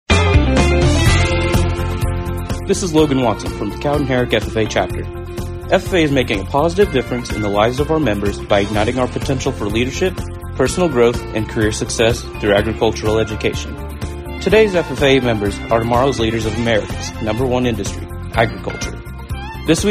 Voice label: male female; ffa week; cowden herrick